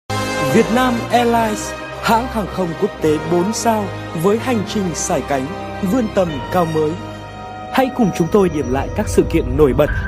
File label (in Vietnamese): TVC Nam giong bac; Phim doanh nghiệp; Giọng nam miền bắc; Phim doanh nghiệp (Vietnam Airlines)